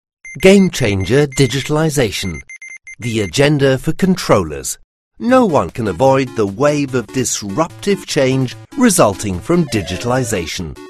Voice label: voiceover native speaker uk british; englischer sprecher; controllers